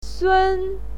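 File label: "sun", Mandarin Chinese, first tone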